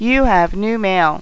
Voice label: American mail woman